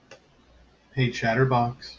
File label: hey chatterbox, en us